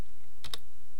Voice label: "kw", east cree syllabics

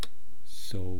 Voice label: "swaa", East Cree syllabics

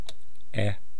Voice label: "e", east cree syllabics

